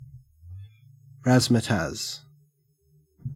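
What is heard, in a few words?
"razzmatazz", Australian English; pronunciations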